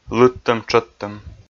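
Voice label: "лыдтӧм-тшӧттӧм", Komi, male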